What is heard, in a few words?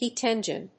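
"heat engine", English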